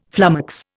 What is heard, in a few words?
"flummox", Indian